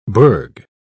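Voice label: "bourg", British English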